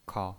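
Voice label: "kao", neutral tone, pinyin voice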